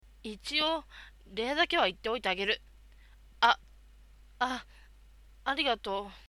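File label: tsundere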